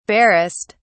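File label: "barest", British English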